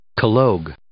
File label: "collogue", English